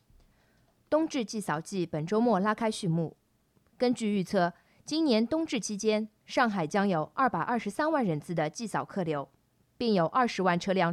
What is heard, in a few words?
news